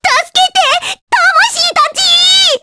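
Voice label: Vox Skill Japanese; Rephy; kingsraid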